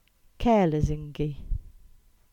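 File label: "Lesingey Round", Cornish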